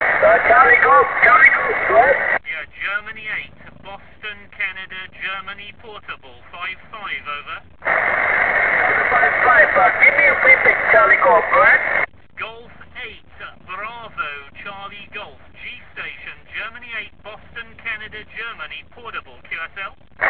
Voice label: qso